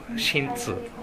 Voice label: "sentu", minamiyamagata